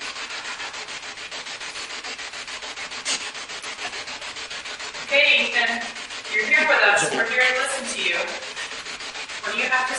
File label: Basement Laundry Asylum Spirit Box; madison seminary investigation